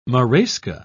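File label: "marasca", English, British English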